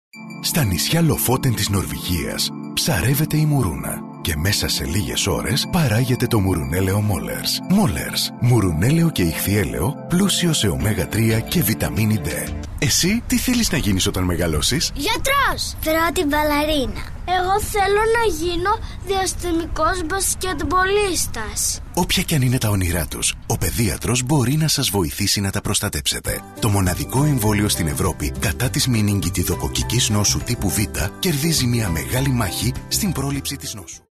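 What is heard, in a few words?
Greek, male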